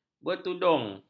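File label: "bertudung", Malay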